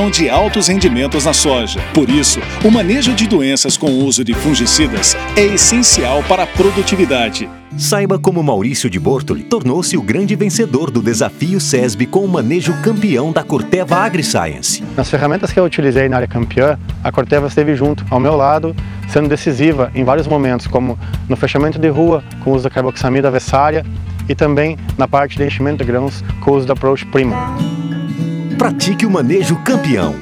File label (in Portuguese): RADIO AGRICULTOR informativo; Corteva